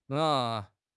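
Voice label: "nei3", Chinese; HSK1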